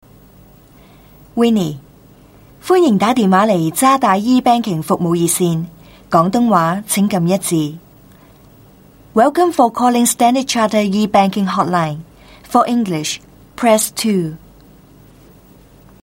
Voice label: Cantonese E Banking Hotline F